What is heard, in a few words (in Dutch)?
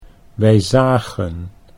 wij zagen, Dutch